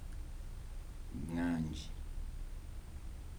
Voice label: "cold", Kibembe